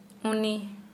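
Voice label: he, Santali, female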